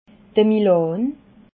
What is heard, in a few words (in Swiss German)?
"milan", alsace